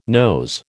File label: "nose", American